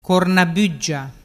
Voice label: "cornabuggia", dialettu